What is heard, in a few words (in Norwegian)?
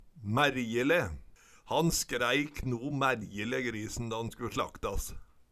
mærjele, Numedalsmål